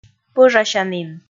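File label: "пӧжасянін", Komi, female; kpv audio dict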